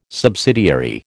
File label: "subsidiary", American